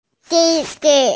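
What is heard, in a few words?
"di4di5", Mandarin Chinese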